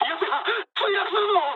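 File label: aircraft destroyed mood med, speech japanese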